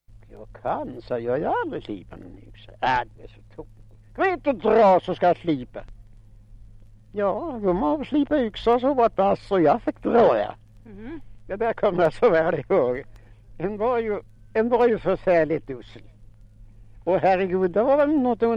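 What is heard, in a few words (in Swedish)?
Fellingsbro Västmanland, svenska sagor dialekter; Folkminnen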